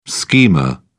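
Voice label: "schema", British English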